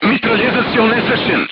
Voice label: voice message gunlayer contused, crew tanks serbian